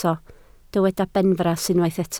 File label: "benfras", Welsh